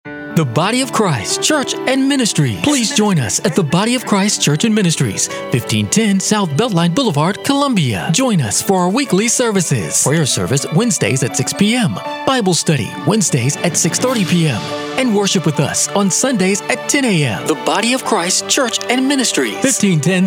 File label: Radio Announcements